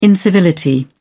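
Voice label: "incivility", British English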